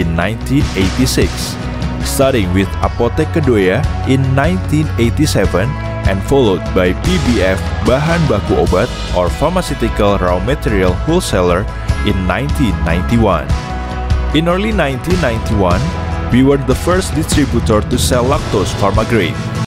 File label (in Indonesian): Bahasa Indo Company Profile; Signa Husada